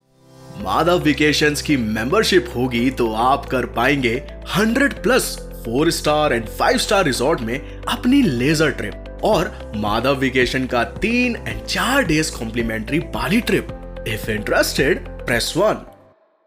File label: VO WITH BGM